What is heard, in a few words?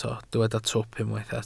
"twp", Welsh